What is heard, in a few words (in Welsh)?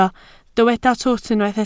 "twt", Welsh